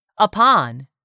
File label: "upon", English; 3000 words